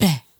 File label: "bah", female; ooh ahh